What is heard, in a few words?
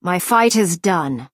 Breton female concede